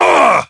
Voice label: hurt